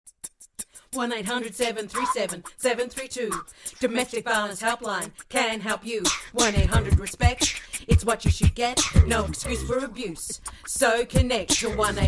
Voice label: English; 1800RESPECT